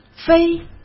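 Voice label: "飞", Mandarin Chinese; hsk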